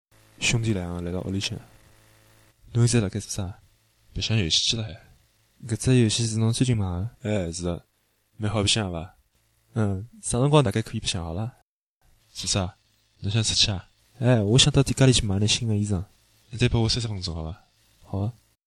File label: dialogue, wu chinese